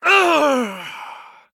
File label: Male Human Death